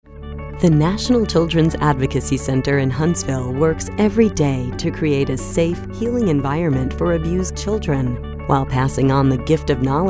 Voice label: warm caring